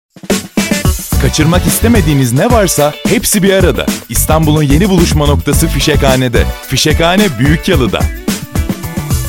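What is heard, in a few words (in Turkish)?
reklam, Turkish; fisekhane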